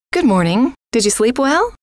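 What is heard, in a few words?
good Morning Did You Sleep Well, female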